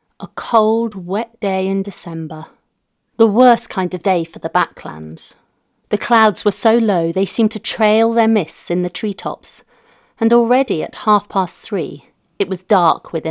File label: Audiobook